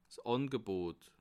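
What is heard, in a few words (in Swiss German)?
"angebot", Bas Rhin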